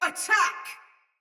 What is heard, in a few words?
See Player Shout Soldier Mace Agent Female; Battle; Sinecure Melee